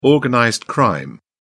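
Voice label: "organized crime", British English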